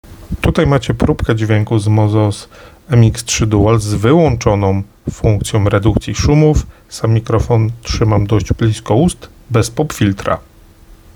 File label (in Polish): probka bez redukcji pop filtra; Mozos MX3 Dual